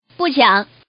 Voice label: no grab, girl; Fight the Landlord